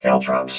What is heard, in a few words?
"caltrops", robot vox